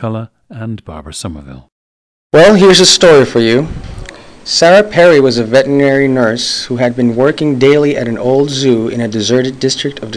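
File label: colombia, International Dialects of English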